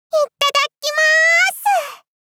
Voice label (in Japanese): "いっただっきま～す！", 幼女×高音域